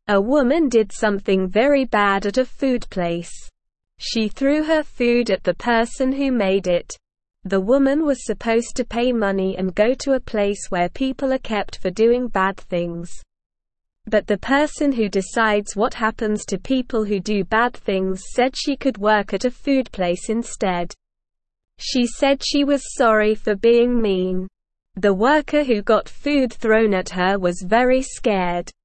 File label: English Beginner SLOW Reading; Woman Throws Food Gets Second Chance at Work